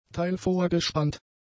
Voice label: "teilvorgespannt", German; adjectives